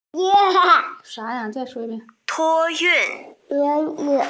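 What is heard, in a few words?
"consign", Mandarin, hearing-impaired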